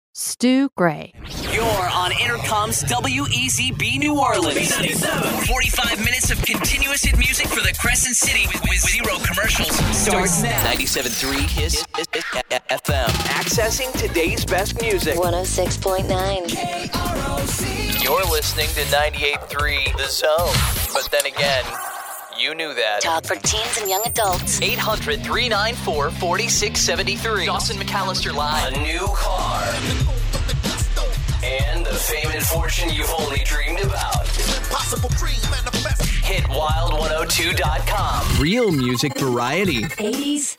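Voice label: IMAGING, voiceover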